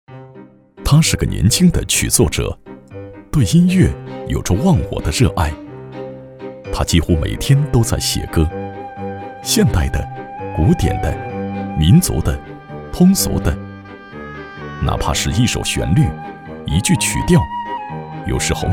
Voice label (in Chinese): 男 人物纪录片; 音乐之梦